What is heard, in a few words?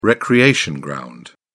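"recreation ground", British English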